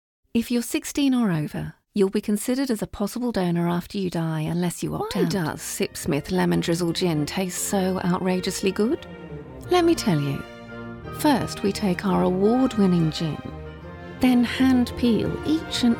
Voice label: Commercial

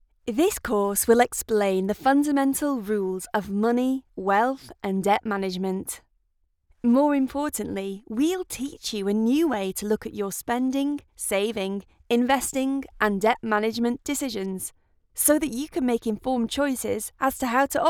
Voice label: Corporate; Corporate Demo; Money

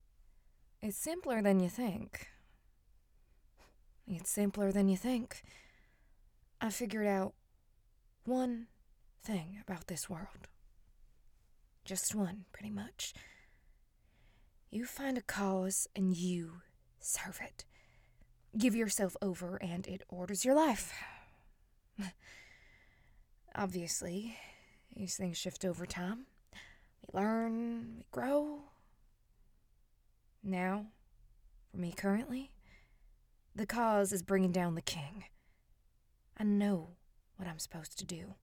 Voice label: texas accent

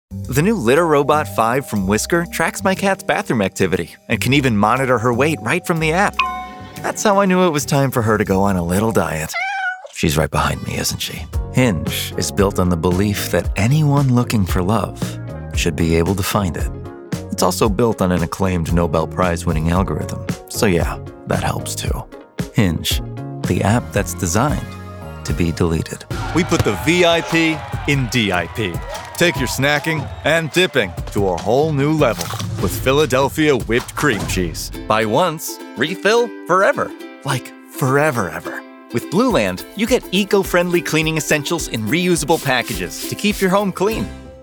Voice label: Commercial; Chicago, United States

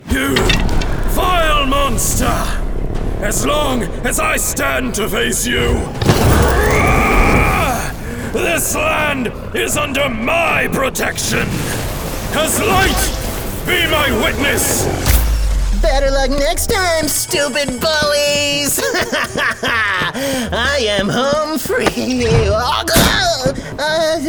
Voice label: Character

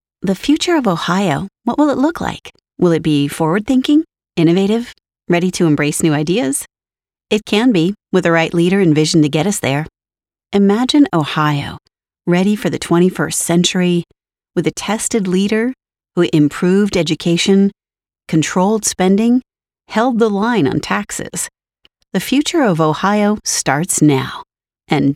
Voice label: Political Positive, en-AU

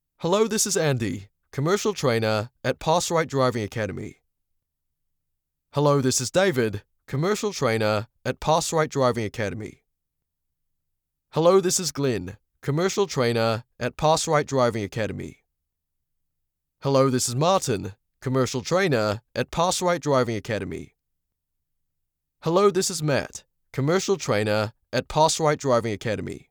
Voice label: phone message; PassRite Driving Academy Limited

